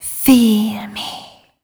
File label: fem spo, Vocals